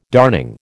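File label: "darning", American English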